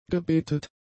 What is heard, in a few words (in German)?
"gebettet", German; adjectives